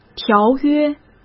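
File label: "条约", Mandarin Chinese; chinese-flashcards; hsk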